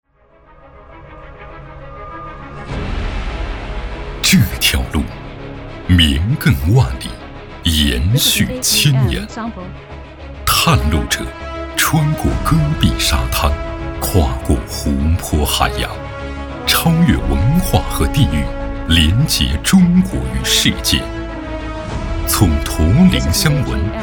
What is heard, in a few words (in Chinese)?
男 专题 形象 片 大气厚重; 一带一路